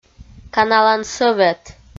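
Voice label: "каналан сӧвет", Komi, female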